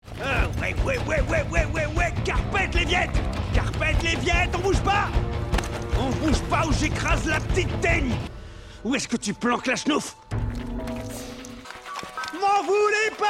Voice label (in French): DOUBLAGE; TONERRE SOUS LES TROPIQUES; JACK BLACK